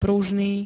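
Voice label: "pružný", Slovak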